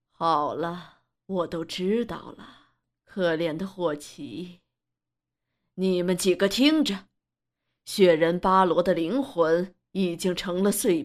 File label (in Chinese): 老年译制